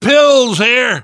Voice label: spot pills, survivor voice; namvet; Left 4 Dead 2; left4dead2 dlc2

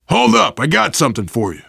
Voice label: alert give item, survivor voice biker; Left 4 Dead 2

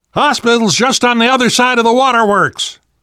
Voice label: world hospital, namvet, survivor voice

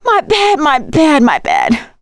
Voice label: witch chasing; survivor; producer

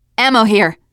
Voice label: spot ammo, teen girl; player; survivor; voice

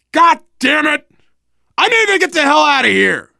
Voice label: call for rescue, survivor voice biker; Left 4 Dead 2

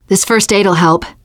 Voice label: take first aid, teengirl, voice; player; survivor

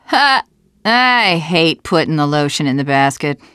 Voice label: second saferoom, teengirl, voice

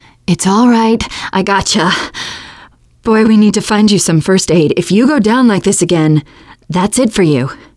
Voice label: revive critical friend, teengirl

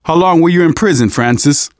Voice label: generic, manager, survivor, voice; Left 4 Dead 2; left4dead2 dlc2

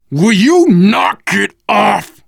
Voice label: friendly fire, voice, biker; Left 4 Dead 2; left4dead2 dlc3; survivor

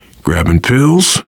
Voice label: take pills, voice, biker; Left 4 Dead 2; left4dead2 dlc3; survivor

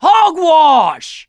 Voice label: reaction negative, survivor voice mechanic

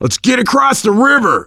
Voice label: directional, voice, biker

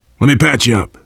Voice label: heal other, voice, biker; Left 4 Dead 2; survivor

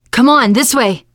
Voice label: follow me, teengirl, voice; player; survivor

